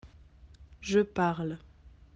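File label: "parler", French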